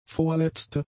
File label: "vorletzte", German; adjectives